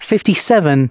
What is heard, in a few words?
announcements male